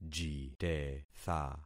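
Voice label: "jitetha", concatenated syllables; Affixing experiment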